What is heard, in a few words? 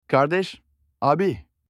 brother in turkish